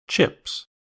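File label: "chips", American English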